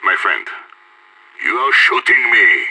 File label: friendly fire, radio; IDF